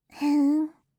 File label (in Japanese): fuu, reaction